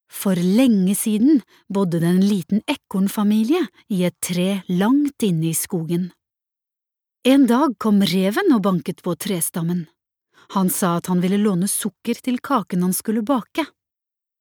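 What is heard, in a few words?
Norwegian children's book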